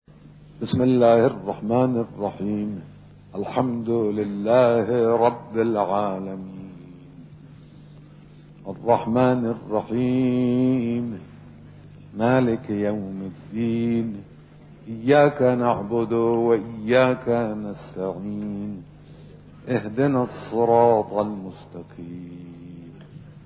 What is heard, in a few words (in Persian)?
قرائت حمد و سوره نماز; فايل صوتي قرائت حمد و سوره نماز